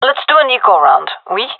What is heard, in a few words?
request eco, gendarmerie fem; vo